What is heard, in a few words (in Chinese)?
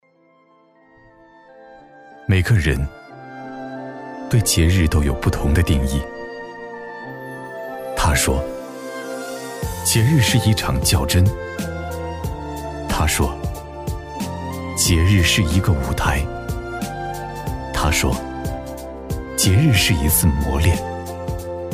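男国大音-温暖; 端午; 文旅配音; 文旅节气样音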